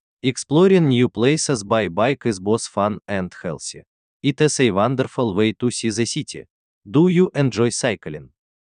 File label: Russia, english accents; english accents challenge